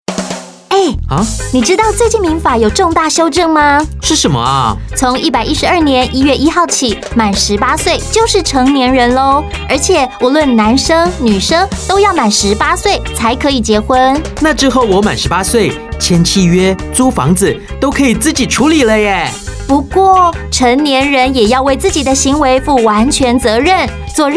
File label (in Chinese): 民法修正調降成年年齡 國語; 法務部